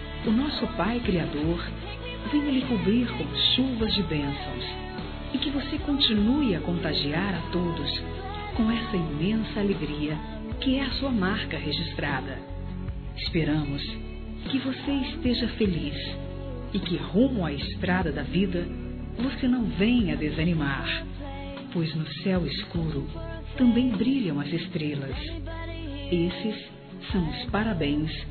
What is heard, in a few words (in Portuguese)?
ANIV. CLIENTE (F); Aniversários; Aniversários diversos; fem